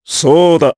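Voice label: Vox Japanese; kingsraid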